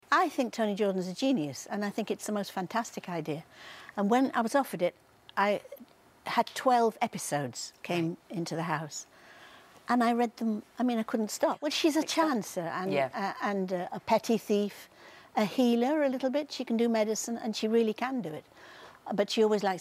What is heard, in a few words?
Interview Sample